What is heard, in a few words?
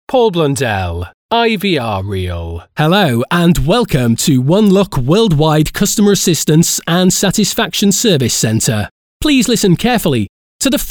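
interactive voice response Phone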